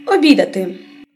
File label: dialogue, Ukrainian